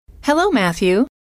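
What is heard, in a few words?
"hello", female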